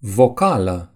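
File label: "vowel", Romanian; gcompris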